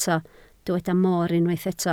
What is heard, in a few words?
"mor", Welsh